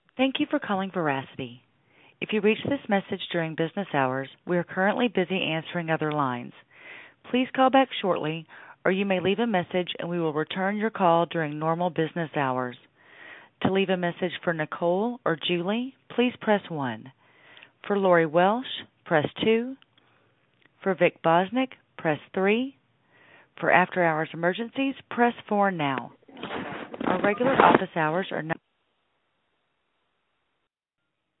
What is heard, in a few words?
Voicemail Greeting; Veracity